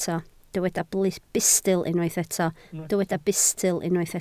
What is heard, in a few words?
"bustl", Welsh